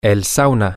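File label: the sauna, Spanish, male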